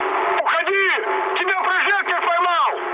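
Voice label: searchlite, mood med, Russian; War Thunder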